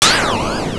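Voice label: "out", English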